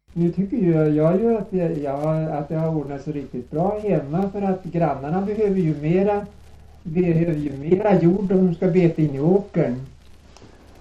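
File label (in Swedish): Högsjö Ångermanland, dialekter, svenska sagor; Folkminnen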